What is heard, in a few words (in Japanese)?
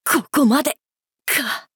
「ここまで……か……」, 男の子 王道系少年主人公 戦闘ボイス